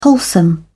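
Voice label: "wholesome", British English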